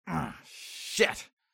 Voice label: Voice Male Late Thirties Swear Ah Shit Frustrated